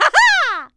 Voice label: clown female taunt; Wii

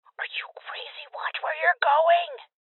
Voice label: Voices Guardian Female; Rebel Cops

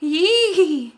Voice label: mom wobble

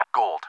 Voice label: English Gold

